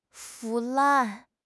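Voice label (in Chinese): "腐烂", Chinese; HSK; HSK6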